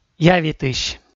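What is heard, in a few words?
"явитысь", Komi, male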